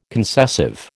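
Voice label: "concessive", American English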